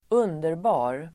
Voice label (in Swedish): "underbar", Swedish